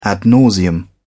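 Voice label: "ad nauseam", English, British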